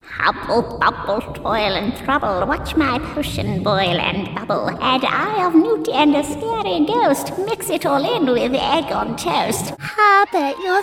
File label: Animation